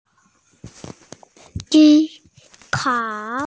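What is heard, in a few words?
"si1kao3", Mandarin Chinese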